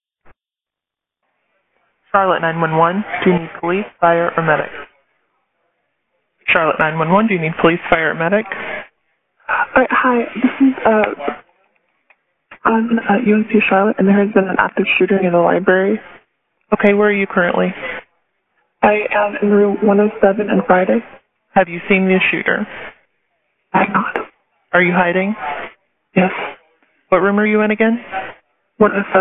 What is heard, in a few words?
911 call; Barnhardt and Halton